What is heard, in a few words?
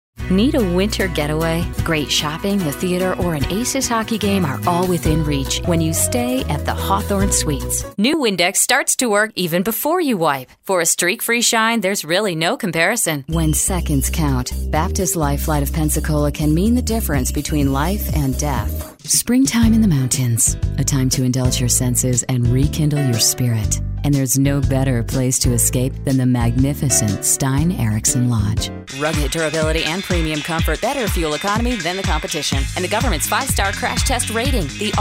Commercial